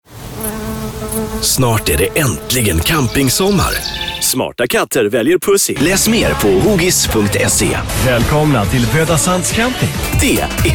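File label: Swedish voiceover, male voice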